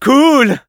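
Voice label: cheers, French; Scout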